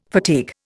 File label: "fatigue", Indian